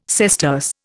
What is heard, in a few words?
"sesterce", Indian